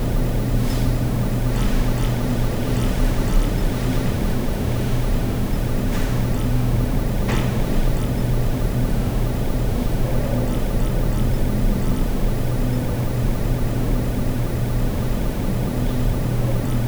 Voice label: inn ambience, people talking